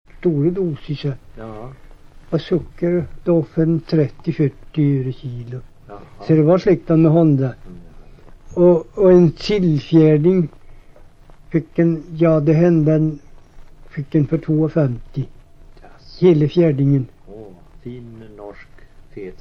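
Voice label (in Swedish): Hede Härjedalen, svenska sagor dialekter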